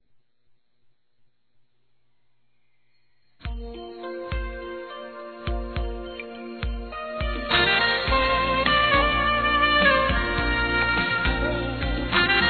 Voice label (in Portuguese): Aniversário Primo Feminino; Aniversários; Aniv Familiar